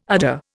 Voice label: "udder", Indian

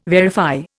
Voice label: "verify", Indian

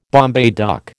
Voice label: "Bombay duck", American

